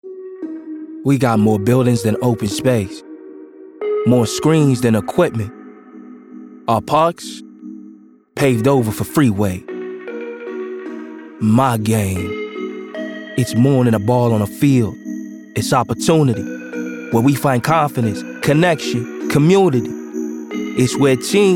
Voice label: Accent